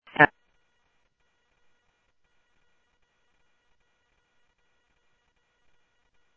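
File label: police radio dispatch, Scottsdale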